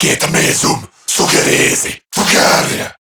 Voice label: Cover Me Corrupted Grineer Male